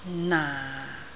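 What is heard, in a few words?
"naa4", Cantonese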